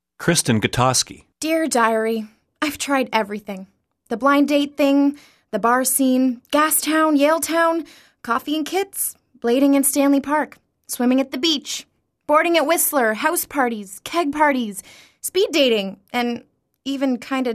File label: commercial female